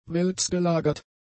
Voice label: "wälzgelagert", German; adjectives

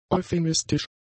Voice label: "euphemistisch", German; adjectives

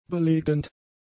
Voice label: "belegend", German; adjectives

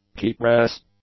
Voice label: "keypress", English

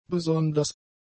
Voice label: "besonders", german; adjectives

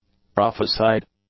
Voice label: "prophesied", english; adjectives

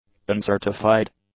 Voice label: "uncertified", English; adjectives

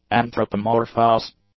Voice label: "anthropomorphous", English; adjectives

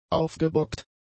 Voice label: "aufgebockt", German; adjectives

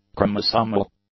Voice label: "chromosomal", English; adjectives

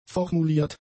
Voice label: "formuliert", German; adjectives

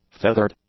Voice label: "feathered", english; adjectives

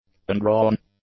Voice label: "undrawn", English; adjectives

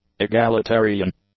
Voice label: "egalitarian", English; adjectives

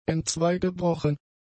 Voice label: "entzweigebrochen", German; adjectives

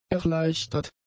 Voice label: "erleichtert", German; adjectives

